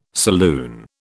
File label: "saloon", British